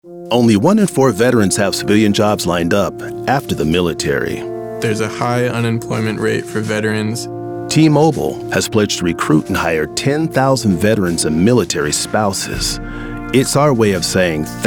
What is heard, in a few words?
Commercial